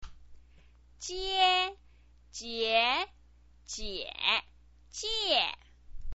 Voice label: "jie", chinese pinyin